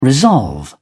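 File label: "resolve", British English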